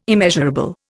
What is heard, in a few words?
"immeasurable", Indian